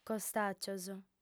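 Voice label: "costàgios", female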